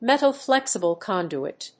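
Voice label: "metal flexible conduit", English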